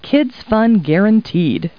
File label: people, kid